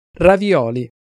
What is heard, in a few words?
"ravioli", Italian